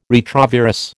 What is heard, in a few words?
"retrovirus", American